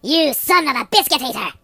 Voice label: "Gonna get you", Pirate; HedgewarsMobile